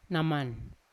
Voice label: "naman" night, Yawa

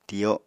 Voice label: "tiyo", apatani

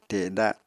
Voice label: "teda", Apatani

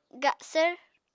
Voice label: "gasvr", Apatani

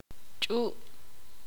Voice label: ixil; seno

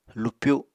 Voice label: "lupyu", Apatani

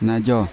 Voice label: "najo", Yawa; leg foot; unat

